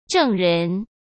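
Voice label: "证人", Mandarin Chinese; hsk; chinese-flashcards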